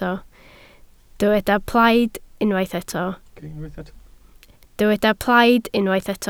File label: "plaid", Welsh